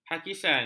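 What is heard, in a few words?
"hakisan", Malay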